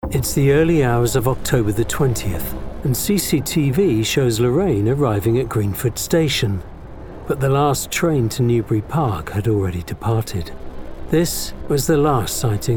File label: crime doc